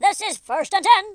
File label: "come on then", US Sports speech